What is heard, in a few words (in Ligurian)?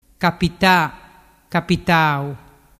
"capita capitau", dialettu